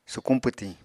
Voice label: "sukuñ pwtiñ", apatani

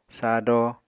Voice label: "sado", Apatani

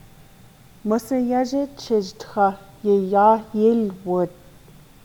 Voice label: navajo

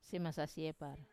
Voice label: "semasasiepar", they are scattered, wamesa

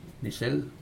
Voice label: walking stick, Aneityum

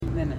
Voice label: "teeth", Ipili

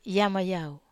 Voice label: "yama yau", Wamesa

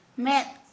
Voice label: Santali, eye

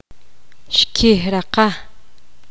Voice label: poqomam; derramarse

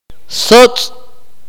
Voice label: "bat", Akateko

